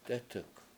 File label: "dEtuk7", Washo; something on the stem